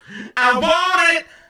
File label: male fx vox, vocal house, f; PS-28 Vocal House Samples